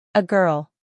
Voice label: "a girl", English